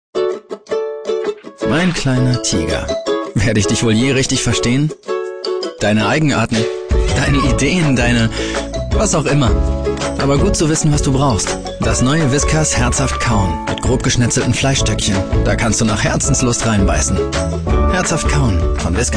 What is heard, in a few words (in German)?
Werbung Freundlich